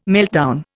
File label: "meltdown", Indian